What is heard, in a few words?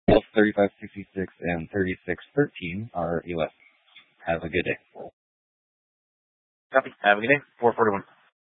Police Department Dispatch; Grand Junction Police Department Dispatch